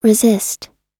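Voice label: "resist", US English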